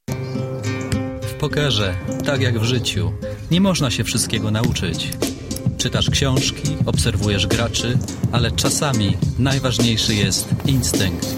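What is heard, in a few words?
Polish general; GoLocalise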